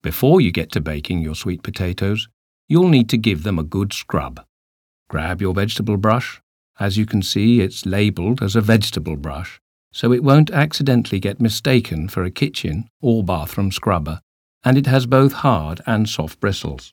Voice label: Explainer Short